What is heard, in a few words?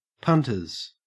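"punters", Australian English; pronunciations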